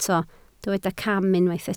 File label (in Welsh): "cam", Welsh